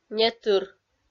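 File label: "нетыр", Komi, female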